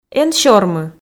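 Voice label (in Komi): эн сёрмы, Komi, female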